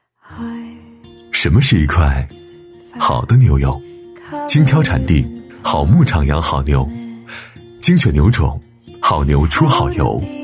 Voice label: 男 温暖; 男B3-磁性稳重; 质感磁性

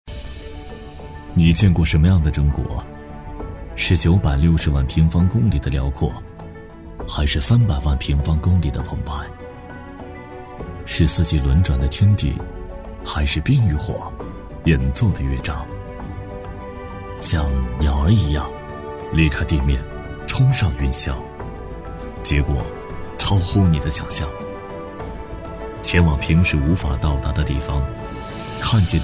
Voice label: 男 纪录片 大气讲述; 厚重有力 自然诉说; 航拍中国

